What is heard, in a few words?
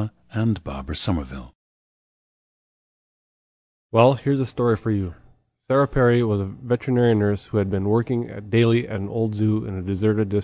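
michigan, International Dialects of English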